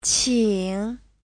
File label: "qing3", chinese